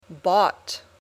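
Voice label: "bought", English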